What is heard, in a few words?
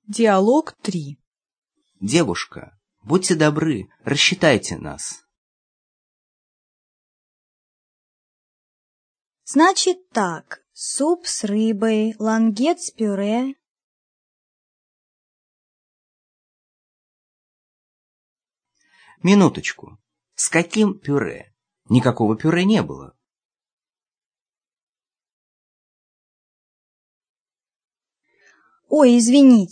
dialog, russian